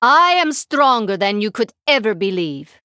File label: Female Draenei; Tamsin Mission6 Intro